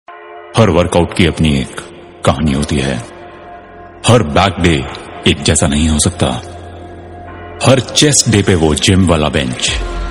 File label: gym motivational shayri hindi